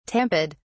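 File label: "tamped", British English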